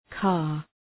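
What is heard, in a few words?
"car", English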